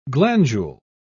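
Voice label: "glandule", English, British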